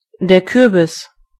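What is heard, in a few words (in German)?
"squash", German; gcompris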